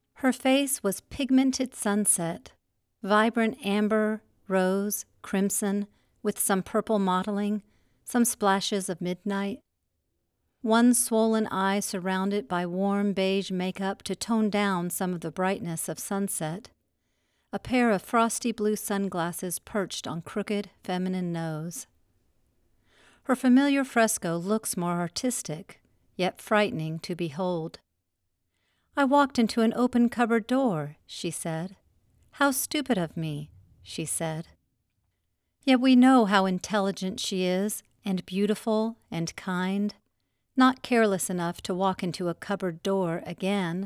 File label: Poetry; Frost